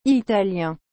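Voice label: "Italien", French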